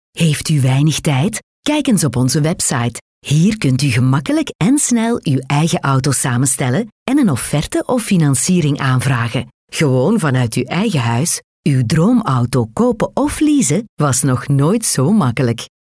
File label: Flemish Professional